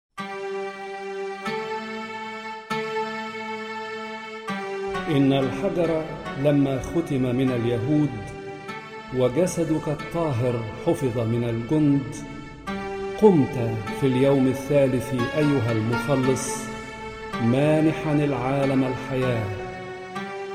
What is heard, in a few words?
Narrator; Resurrection; St Mark DC